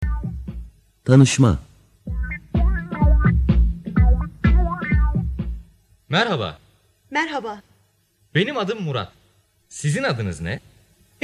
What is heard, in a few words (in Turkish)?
diyalog